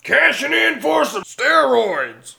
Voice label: Win, Tough Guy Voice; blackjack